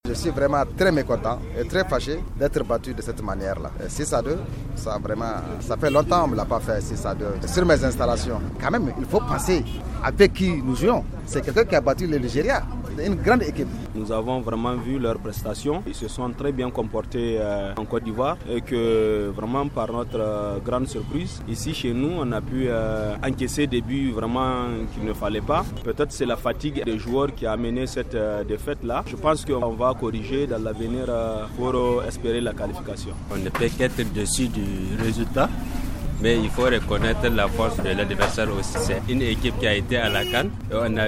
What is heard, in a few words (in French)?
VOX POP DEFAITE; NIGER FACE MADAGASCAR; FR; MENA; CHINA